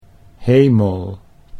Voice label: "hemel", Dutch